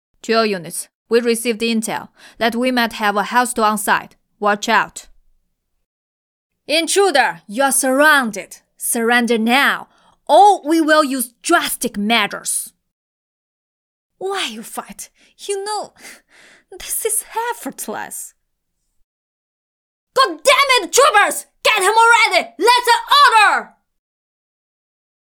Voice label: Mandarin China Character Voices English with Chinese accent; Synthetik